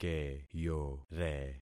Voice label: "keyore", concat syllables; Affixing experiment